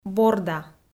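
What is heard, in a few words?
"борда", Komi-Zyrian, female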